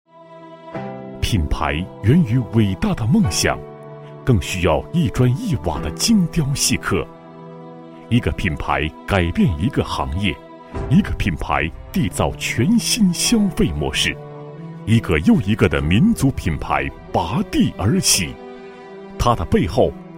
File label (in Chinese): 男 栏目包装, 国语男声配音 磁性; 中央证券频道